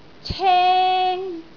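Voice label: "ceng1", Cantonese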